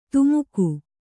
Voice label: "ಧಿಮಿಕು", kannada